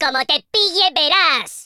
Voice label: "just you wait", Spanish; speech

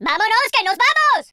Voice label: "what the", Spanish; speech

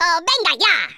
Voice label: "go away", Spanish; speech

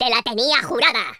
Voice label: "revenge", Spanish; speech

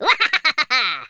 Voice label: "fatality", Spanish; speech

